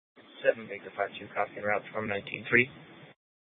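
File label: Mesa Police Department Superstition Dispatch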